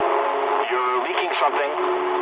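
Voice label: English tank damaged mood low; War Thunder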